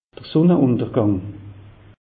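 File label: "sunneuntergang", Alsatian; meteo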